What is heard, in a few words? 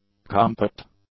"compact", English; adjectives